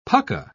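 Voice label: "pucka", British English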